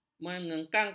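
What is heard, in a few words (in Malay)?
"mengengkang", Malay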